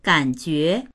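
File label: "gan3jue2", Mandarin Chinese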